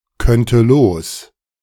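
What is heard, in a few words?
"könnte los", German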